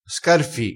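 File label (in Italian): "scarfi", dialetto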